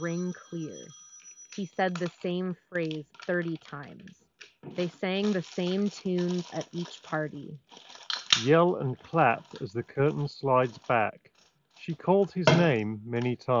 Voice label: Sol Republic Soundtrack Pro ANC Office microphone sample